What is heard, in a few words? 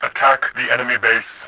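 attack base, voice, Bot; Tribes2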